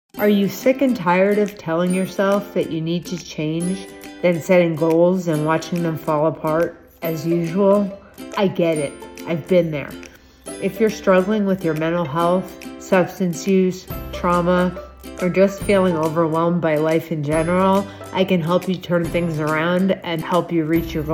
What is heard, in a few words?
commercial